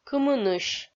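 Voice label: "кымынысь", Komi-Zyrian, female; kpv audio dict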